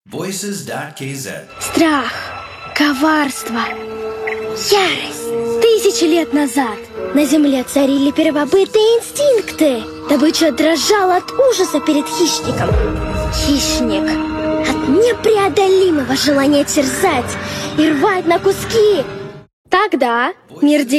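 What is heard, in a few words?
Kid Russian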